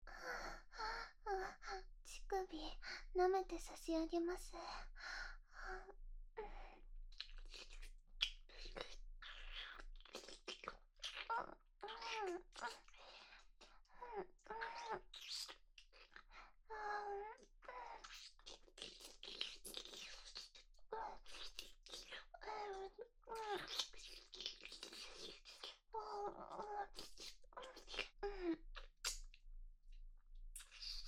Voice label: ループ用トラック, ASMR; 全責めループ; SEなし

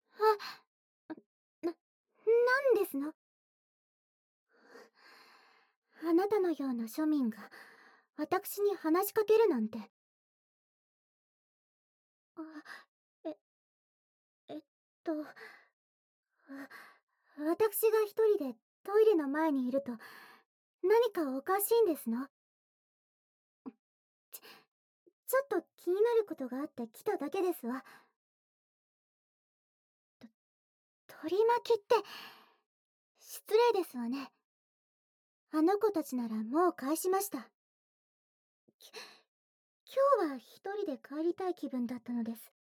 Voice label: お嬢様のお掃除耳舐め, ASMR; 催眠3日目; 催眠パラドックスー僕を受け入れないお嬢様ー; KU100

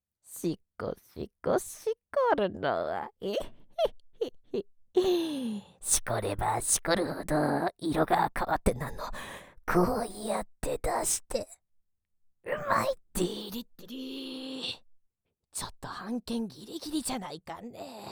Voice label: フリートーク, ASMR; 意地悪な妹達にシコシコボイスとヌけない演技でオナニーを妨害される地獄の射精我慢遊び

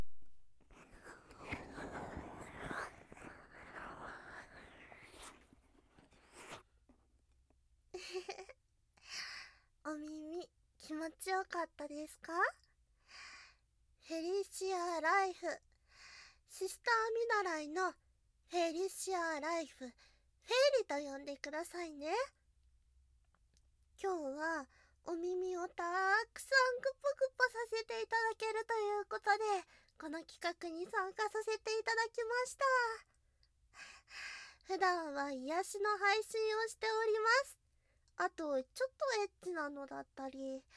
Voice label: フリートーク; フェリシア・ライフ; 耳舐め&吐息ぐっぽり10時間♪

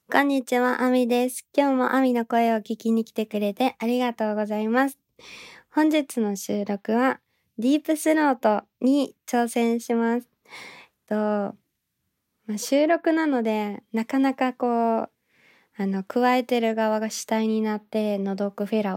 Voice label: 収録前トーク, ASMR

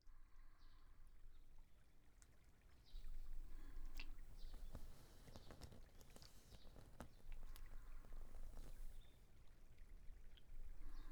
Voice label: 前立腺マッサージ, ASMR; ゾクッゾクッ!健全!ちんこマッサージ店; フォーリーサウンド

